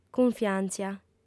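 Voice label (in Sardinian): "cunfiàntzia", female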